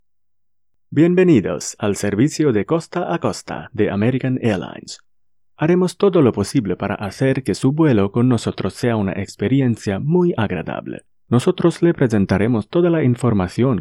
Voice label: Spanish eLearning

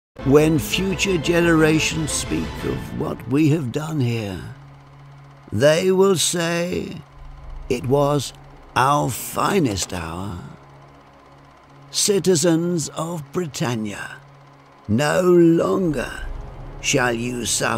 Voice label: King George war speech character voice

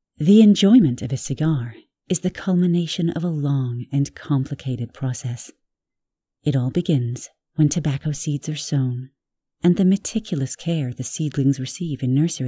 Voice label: Narration US

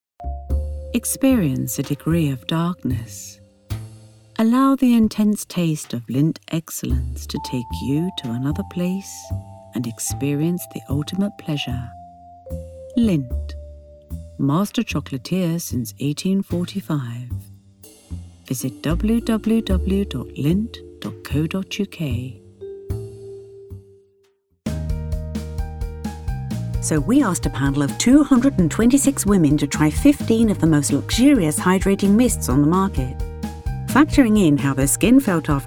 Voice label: Lovely Voice Commercial

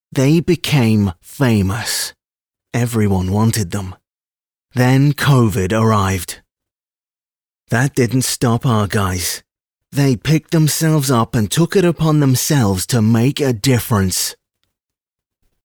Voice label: Coffee Movie Trailer; Costa

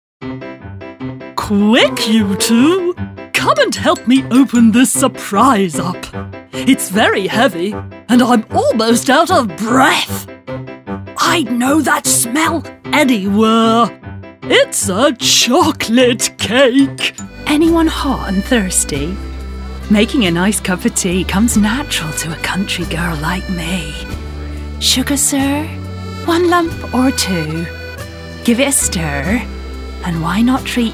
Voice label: Character Reel

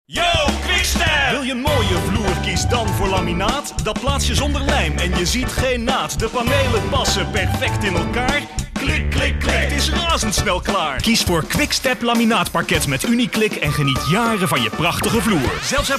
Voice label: medium sell rap

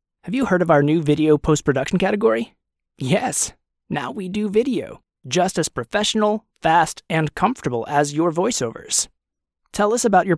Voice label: product video

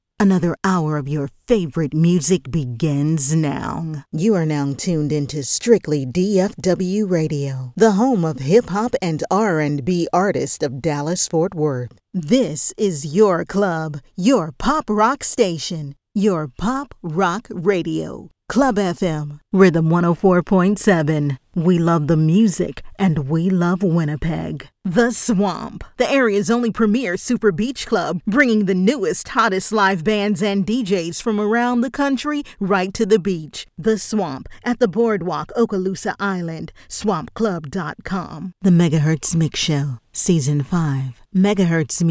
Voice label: Imaging

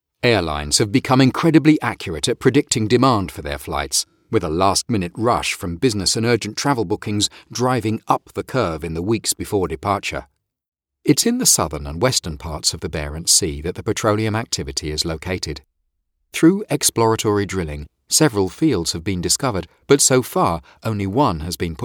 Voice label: Narrative Home Studio

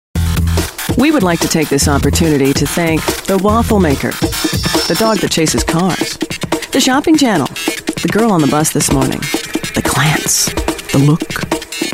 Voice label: commercial voice

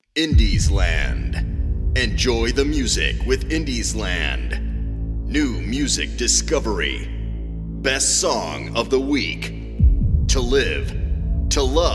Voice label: Radio Imaging

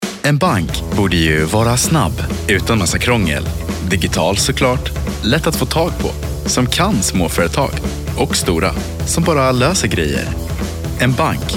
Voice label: Swedish Bank Commercial

